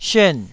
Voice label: "syuan4", Taiwanese